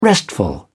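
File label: "restful", British English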